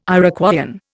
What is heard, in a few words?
"Iroquoian", Indian